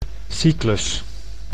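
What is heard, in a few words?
"cyclus", Dutch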